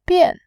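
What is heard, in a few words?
"bian", Mandarin Chinese, tone 4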